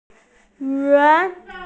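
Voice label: "yun2", Mandarin Chinese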